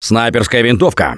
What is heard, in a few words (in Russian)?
Take Sniper, left4dead2 russian, Survivor Voice; Gambler